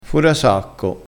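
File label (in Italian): "forasacco", vocabolario dialettale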